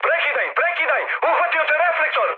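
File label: Serbian searchlite mood high; War Thunder; speech srb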